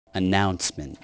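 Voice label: "announcement", spoken word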